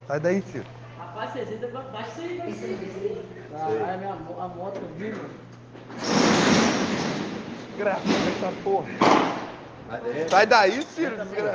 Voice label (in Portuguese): whatsapp audio; gangues rivais deixa populacao encurralada em praca da serra